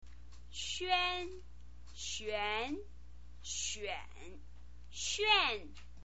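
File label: "xuan", Mandarin Chinese pinyin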